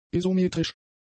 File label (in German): "isometrisch", german; adjectives